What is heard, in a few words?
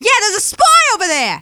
scout cloaked spy, femscout